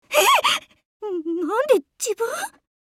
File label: change jp; chasers; bellona